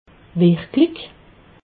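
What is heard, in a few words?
"wirklich", Alsatian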